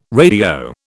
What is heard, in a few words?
"radio", British